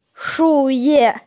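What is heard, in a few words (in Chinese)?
"shu4ye4", Mandarin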